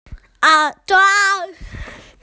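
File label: "ear", Mandarin; er3duo5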